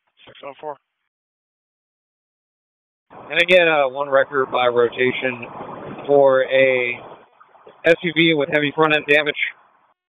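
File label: Police Southwest District Dispatch; Raleigh; wake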